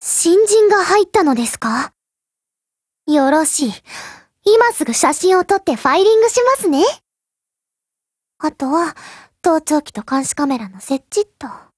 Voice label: BUILD OVER JP